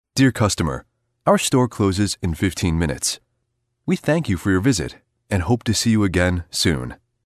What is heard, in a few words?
store closing example